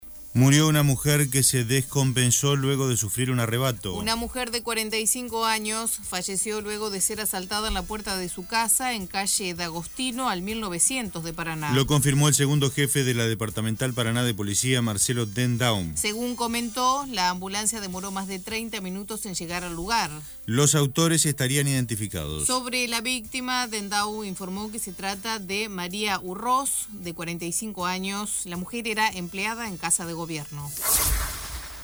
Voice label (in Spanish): informativo; mujer infarto